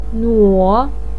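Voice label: "nuo3", Mandarin pinyin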